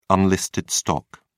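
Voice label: "unlisted stock", English, British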